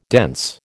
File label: "dense", American